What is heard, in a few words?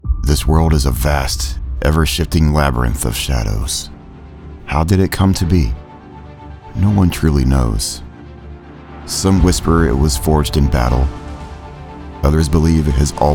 epic trailer, Epic, In A World, Game Trailer; Movie Trailers